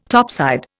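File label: "topsoil", Indian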